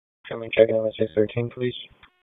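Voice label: Police Southwest District Dispatch, p25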